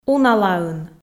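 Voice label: "уналаын", Komi, female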